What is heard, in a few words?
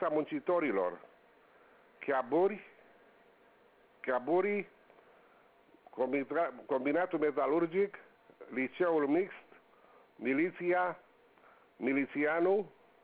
Romanian Words